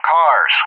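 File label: "cars", English; JTAC; DCS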